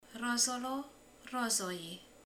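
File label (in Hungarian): "rózsaszín", Hungarian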